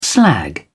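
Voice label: "slag", British English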